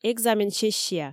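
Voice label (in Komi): "экзамен сессия", Komi, female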